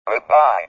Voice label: "Goodbye", speech; Texas Instruments Speech Products